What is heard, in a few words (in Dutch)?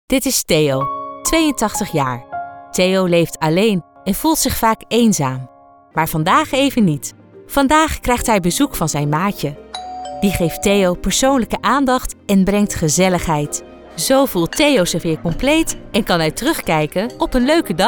Commercial, voice-over